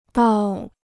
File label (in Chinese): "到", Chinese